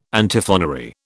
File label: "Antiphonary", British